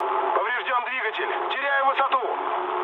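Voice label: Russian damaged engine mood low, speech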